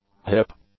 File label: "hip", English; nouns